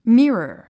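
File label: "mirror", English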